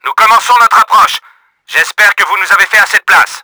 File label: STARTING LANDING, french; Battle Engine Aquila; XBOX